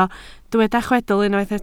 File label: "chwedl", Welsh